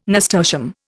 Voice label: "nasturtium", Indian